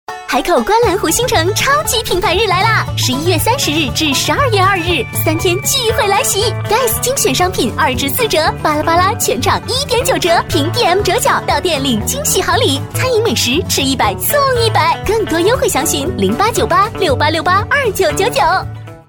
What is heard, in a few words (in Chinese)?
广告 促销 活力; 品牌日